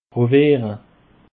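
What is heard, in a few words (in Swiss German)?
"browiere", Alsatian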